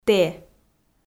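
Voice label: "тэ", Komi, female; audio dict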